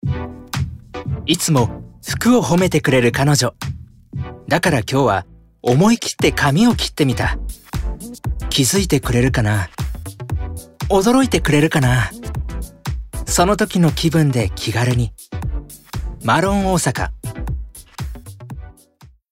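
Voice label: commercial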